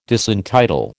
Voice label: "disentitle", American

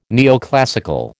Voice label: "neoclassical", American

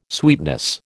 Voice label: "sweetness", American

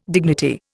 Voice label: "dignity", Indian

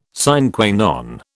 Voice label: "sine qua non", British

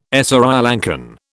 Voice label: "Sri Lankan", British

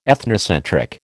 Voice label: "ethnocentric", American

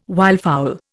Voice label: "wildfowl", Indian

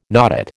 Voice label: "notate", American

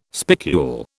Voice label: "spicule", British

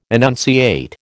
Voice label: "enunciate", American

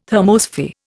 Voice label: "thermosphere", Indian

